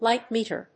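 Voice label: "light meter", English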